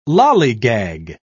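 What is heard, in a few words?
"lallygag", British English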